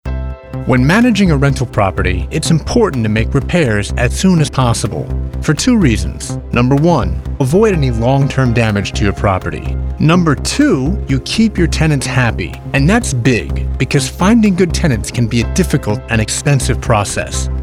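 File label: Narration, Australian English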